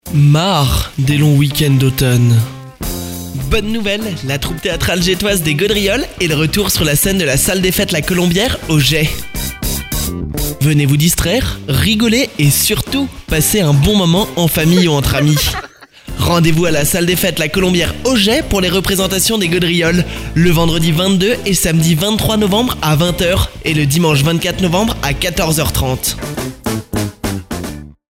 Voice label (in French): spot